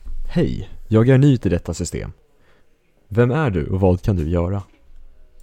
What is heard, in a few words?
swedish prompt